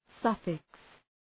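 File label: "suffix", English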